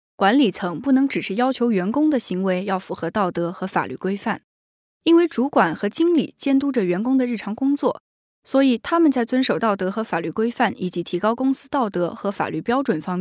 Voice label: Chinese Mandarin Female